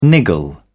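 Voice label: "niggle", British English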